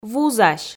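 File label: "вузась", Komi, female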